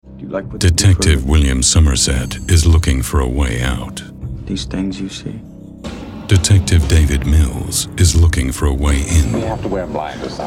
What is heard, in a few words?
horror trailer